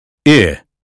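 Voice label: American phonetics sound ship; 英语音标发音（剑桥版）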